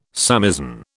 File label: "samisen", British